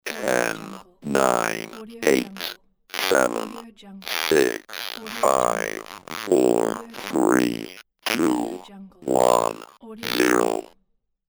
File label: computer voice number countdown